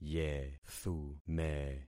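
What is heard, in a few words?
"yethume", concatenated syllables; Affixing experiment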